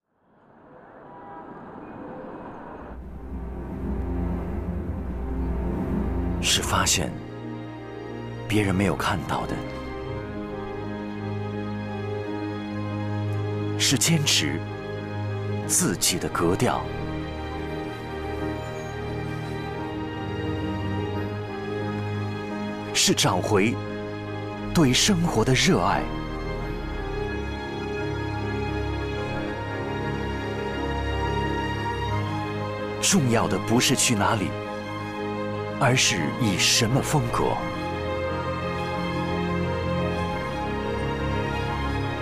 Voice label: 男 广告 年轻稳重 国语男声配音; 沃尔沃V90CC

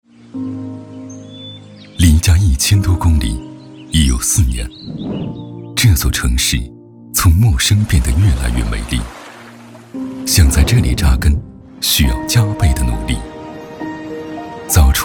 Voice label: 男 广告 独白 片 深情高端; 保持热爱·奔赴山海

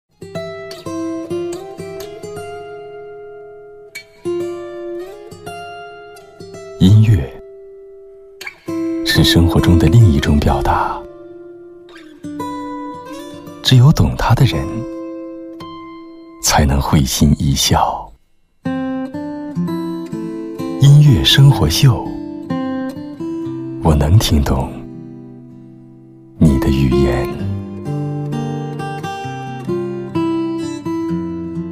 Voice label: 男; 音乐生活秀

